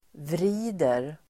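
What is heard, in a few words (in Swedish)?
"vrider", Swedish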